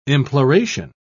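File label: "imploration", British English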